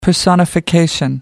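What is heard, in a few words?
"personification", British English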